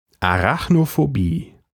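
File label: "Arachnophobie", German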